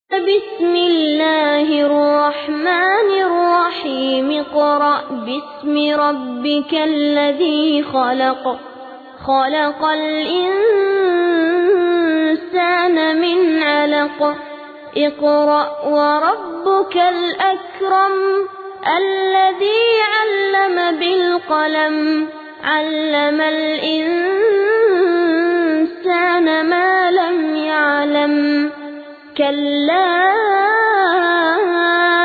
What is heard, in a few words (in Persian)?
قاری نوجوان عربستانی ترتیل; سوره علق